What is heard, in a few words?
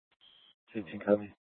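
police dispatch, scanner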